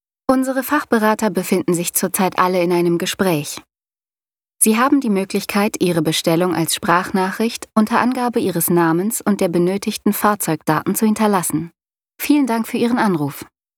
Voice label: anrufbeantworter

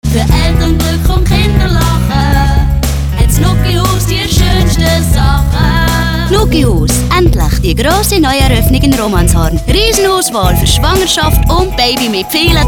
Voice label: gesangsprache swiss; nuggihuus romanshorn

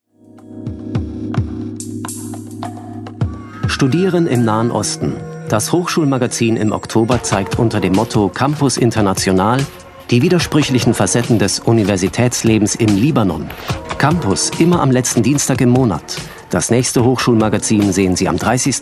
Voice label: station id; br alpha

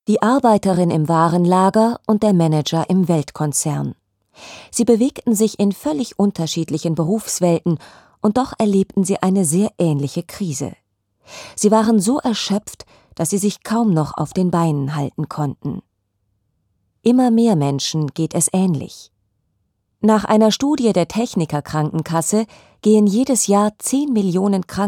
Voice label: erzaehlerin sach hoerbuch burnout; Hamburg; bertelsmann verlag

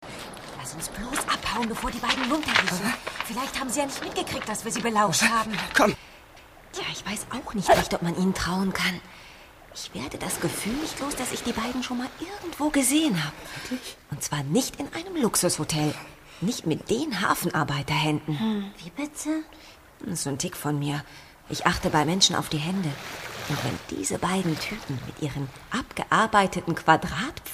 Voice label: kinderhoerspiel; 5 freunde; sony

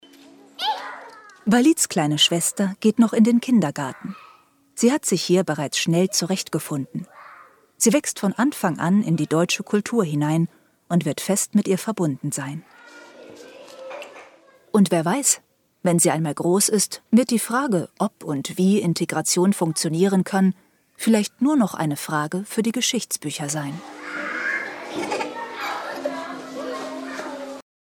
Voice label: doku freundl

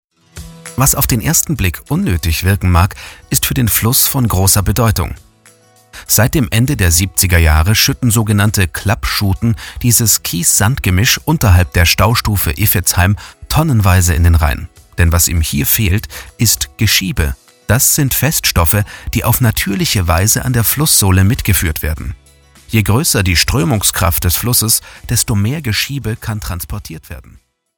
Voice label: sprecherdemo dokumentarfilm interessiert

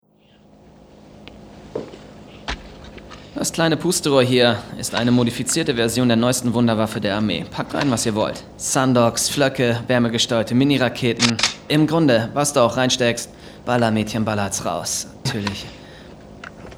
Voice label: synchron; blade

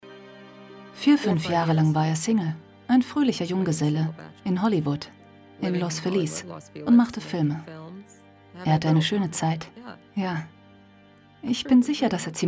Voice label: voiceover sanft

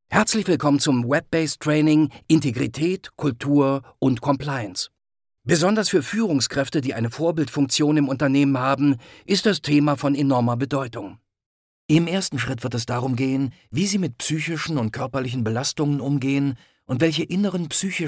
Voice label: e-learning erlarfilm tutorial

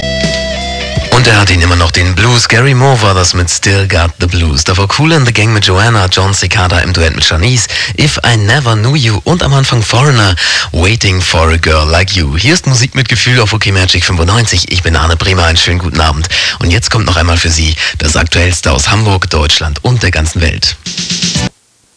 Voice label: stimmprobe radiomod

